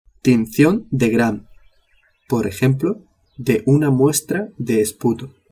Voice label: "tincion de gram", spanish

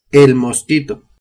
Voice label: "mosquito", spanish

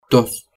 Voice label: "tos", Spanish

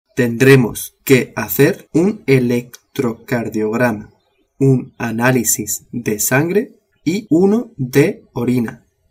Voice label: "hacer electrocardiogramma", spanish

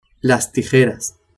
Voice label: "tijeras", spanish

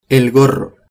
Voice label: "gorro", spanish

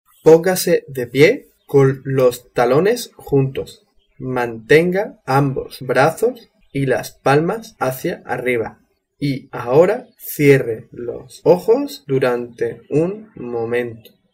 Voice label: "pongase de pie", spanish

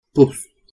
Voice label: "pus", spanish

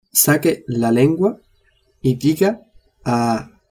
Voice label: "saque la lengua", spanish

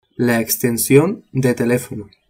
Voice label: "extension de telefono", spanish

